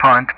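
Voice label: "point", English; Ka-50; Digits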